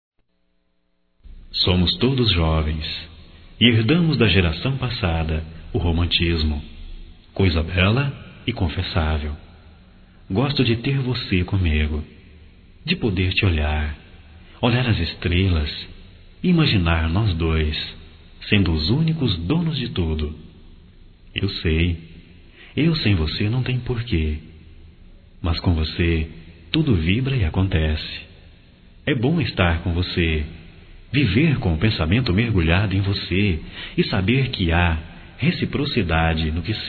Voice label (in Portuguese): Amor Não Tem Idade Masculino; Telemensagens 2017